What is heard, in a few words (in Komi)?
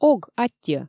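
ог, аттьӧ, Komi, female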